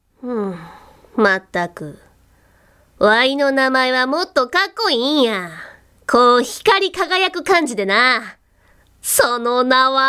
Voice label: boy